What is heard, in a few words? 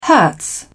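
"hertz", British English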